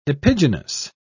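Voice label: "epigenous", British English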